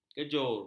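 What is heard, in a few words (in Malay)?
"kejur", Malay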